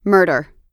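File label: "murder", American English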